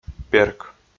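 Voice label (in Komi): "перк", Komi, male; audio dict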